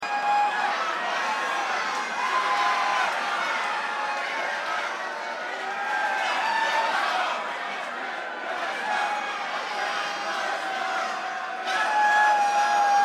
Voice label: Banter Crowd